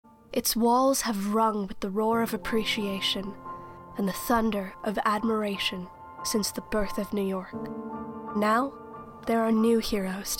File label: Voiceover